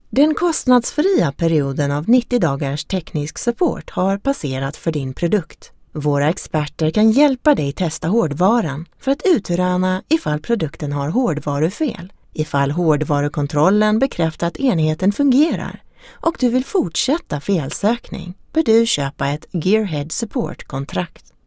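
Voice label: Swedish, female, interactive voice response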